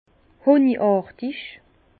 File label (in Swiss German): "honigartig", Bas Rhin; vin; miel